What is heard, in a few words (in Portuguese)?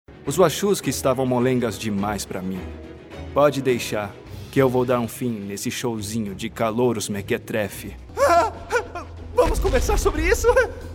Dublagem